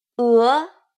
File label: "e", Chinese